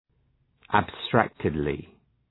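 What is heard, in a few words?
"abstractedly", English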